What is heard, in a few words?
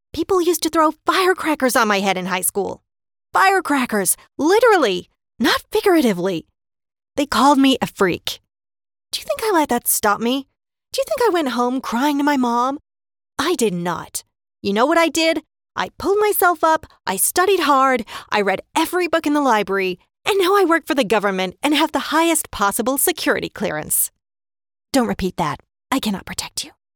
Gen Am accent